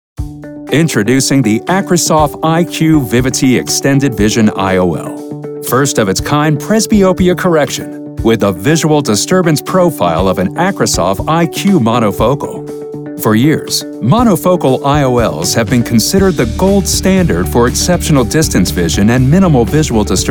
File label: Promotional